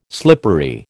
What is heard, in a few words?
"slippery", American